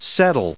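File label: "settleable", English, us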